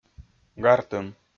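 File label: "гартым", Komi, male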